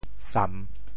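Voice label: "sam3", Teochew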